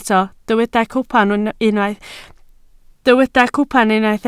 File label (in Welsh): "cwpan", Welsh